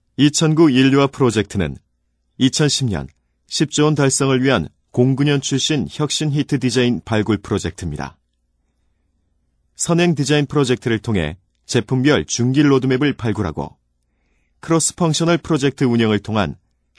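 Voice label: Korean, male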